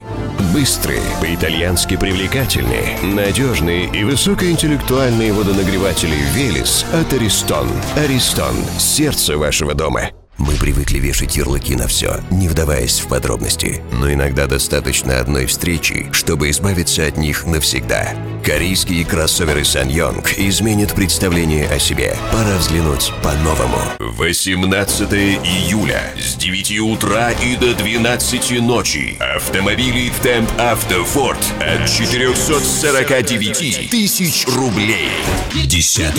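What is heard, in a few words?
male, Russian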